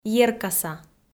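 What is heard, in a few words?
"еркаса", Komi, female; audio dict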